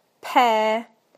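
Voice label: "pear", British English; PictureDictionary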